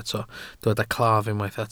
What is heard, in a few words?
"claf", welsh